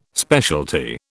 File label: "specialty", British